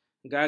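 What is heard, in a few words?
"gagap", Malay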